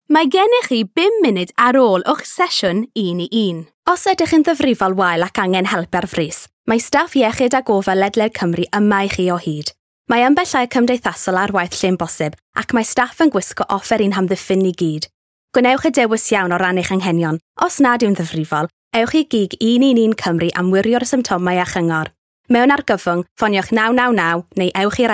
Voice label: CY, Corporate; GoLocalise

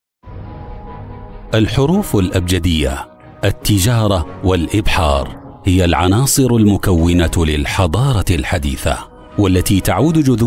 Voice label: AR, Documentary; GoLocalise

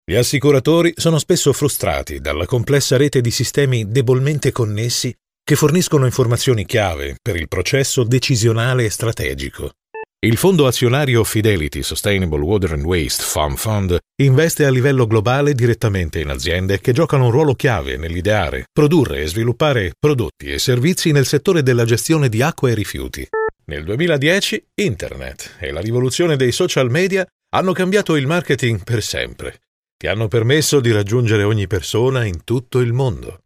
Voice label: IT, Corporate

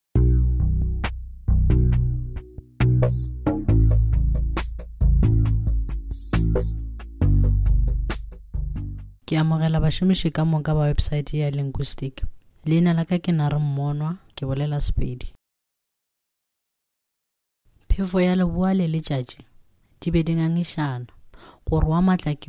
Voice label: Northern Sotho

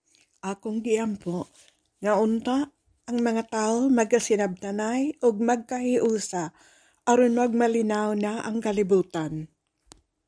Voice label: Cebuano, General

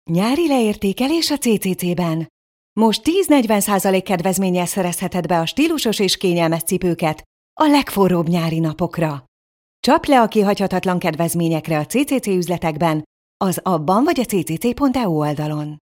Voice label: HU, youthful; GoLocalise

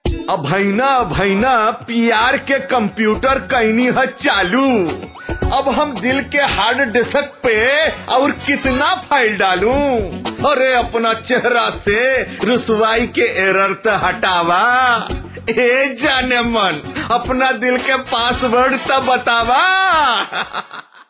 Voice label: Awadhi, general

